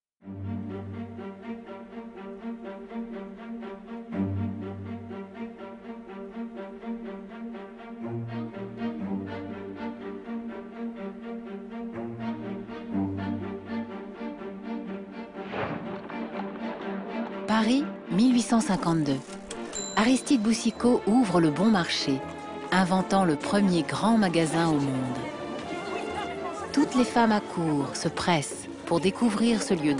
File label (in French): Narration; Au bonheur des dames; Arte